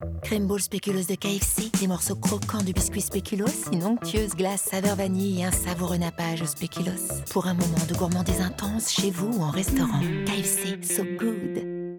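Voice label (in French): gourmande classe, comédienne voix off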